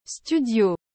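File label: "studio", French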